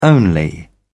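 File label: "only", British English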